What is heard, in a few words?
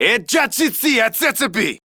Reload Male, Battle Barks; en; Modular Corpus